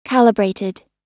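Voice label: "calibrated", American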